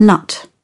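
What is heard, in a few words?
"nut", British English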